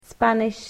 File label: Spanish